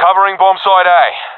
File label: guarding bombsite a, professional epic; vo; Counter Strike Model Pack; CS:GO